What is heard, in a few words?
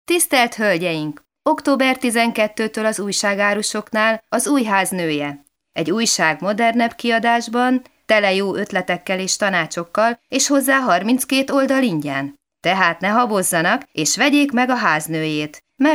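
F HU commercial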